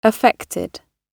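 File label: "affected", British English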